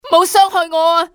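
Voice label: dont hurt, civ hk female; XBOX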